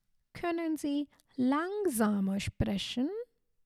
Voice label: "Konnen Sie langsamer sprechen", German; basic german; essential phrases